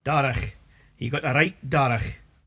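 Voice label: darrach: e got a right darrach, Cromarty Fishertoun dialect; Threat; fishertoun dictionary; cromartymuseum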